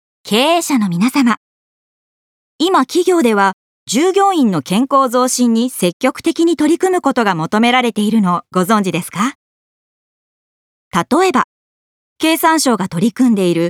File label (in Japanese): 保険解説動画ナレ